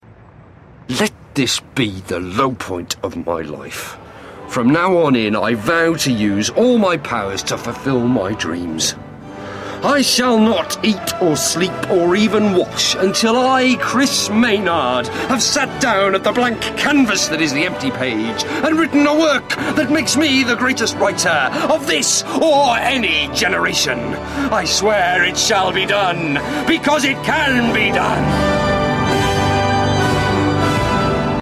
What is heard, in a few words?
inspirational stirring radio drama